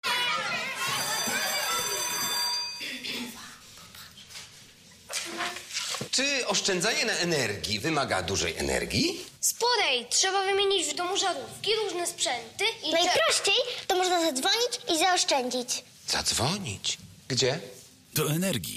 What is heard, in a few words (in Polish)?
reklama; Energa